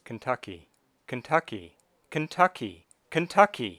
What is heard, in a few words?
Kentucky Four Pitch Ranges